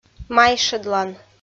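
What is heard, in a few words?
"майшӧдлан" Komi, female; kpv audio dict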